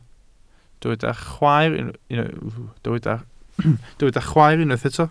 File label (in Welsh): "chwaer", Welsh